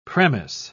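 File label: "premiss", British English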